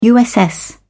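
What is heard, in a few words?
"uss", British English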